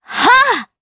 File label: Attack, jp; Laudia; kingsraid